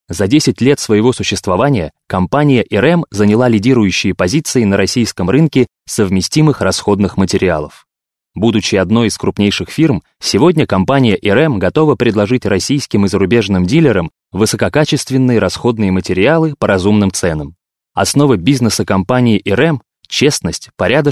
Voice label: russian male